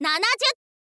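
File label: "nanazyu", Japanese, girl; voice; info girl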